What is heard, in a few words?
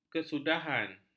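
"kesudahan", Malay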